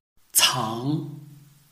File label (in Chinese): cang2, Chinese